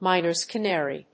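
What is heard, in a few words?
"miners canary", English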